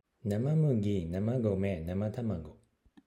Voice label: Japanese slow; phon lab